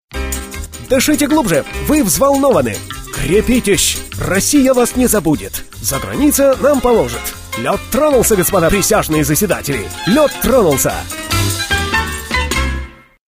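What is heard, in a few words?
parody; Ostap Bender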